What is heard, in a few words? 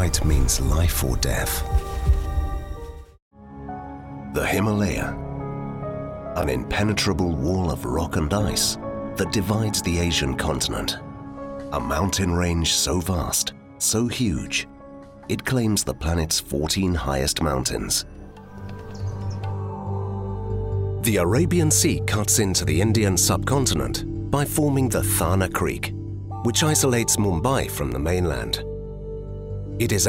Nature Documentary Narration